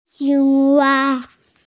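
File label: "qing wa" Chinese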